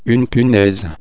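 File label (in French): "punaise", French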